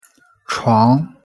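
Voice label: pronunciation "床", Chinese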